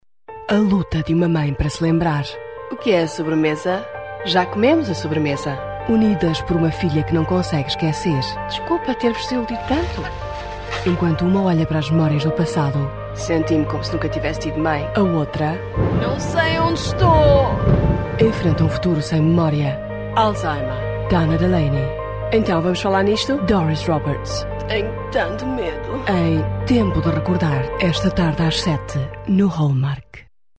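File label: Portuguese Brazil, female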